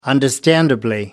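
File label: "understandably", English, British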